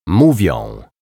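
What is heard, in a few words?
"mowia", Polish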